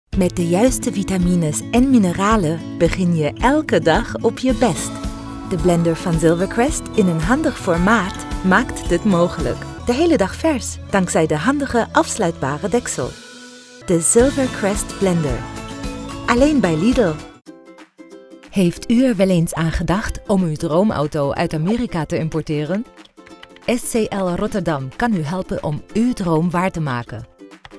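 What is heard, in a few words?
Dutch commercial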